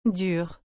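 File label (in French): "dur", French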